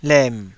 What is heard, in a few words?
"lem3", Taiwanese syllable